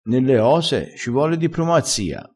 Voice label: "dipromazia", crespinese, vocabolario dialettale

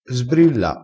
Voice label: "sbrilla", dialettale, crespinese

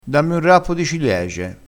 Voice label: "rappo", vocabolario dialettale

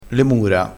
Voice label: "mura", crespinese

